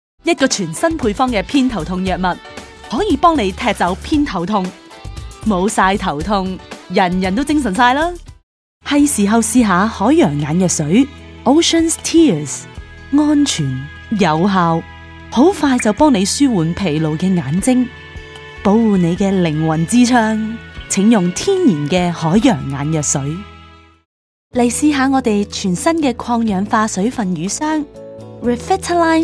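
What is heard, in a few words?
Cantonese, TV commercial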